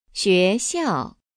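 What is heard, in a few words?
"xuexiao", Chinese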